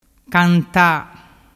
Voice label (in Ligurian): "canta", dialettu